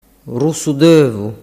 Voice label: "russudovu", dialettu